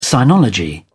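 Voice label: "sinology", British English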